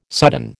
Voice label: "sudden", American